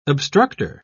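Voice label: "obstructer", British English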